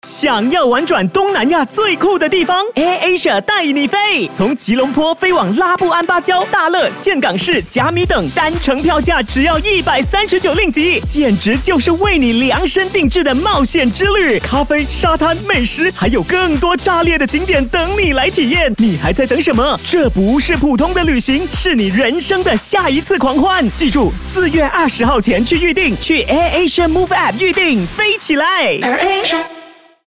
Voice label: Mandarin - Exciting, High Energy, Welcoming; AirAsia